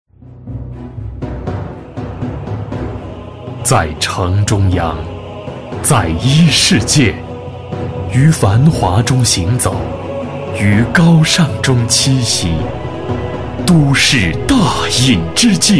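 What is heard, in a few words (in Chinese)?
广告地产浑厚品质; 中央墅质公馆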